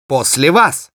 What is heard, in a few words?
battle cry, ru